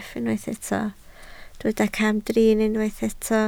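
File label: "camdrin" Welsh, spoken word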